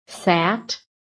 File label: "SAT", american